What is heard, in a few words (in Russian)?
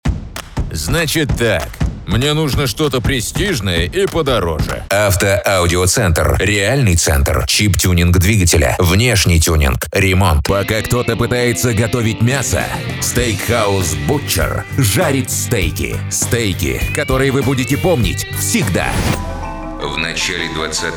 muzhskie diktor golosa